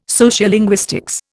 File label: "sociolinguistics", Indian